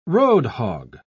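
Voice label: "roadhog", British English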